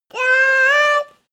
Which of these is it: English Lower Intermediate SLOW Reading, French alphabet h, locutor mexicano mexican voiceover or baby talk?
baby talk